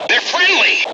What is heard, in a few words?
ff friend, M Voice English